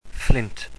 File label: "fflint", wales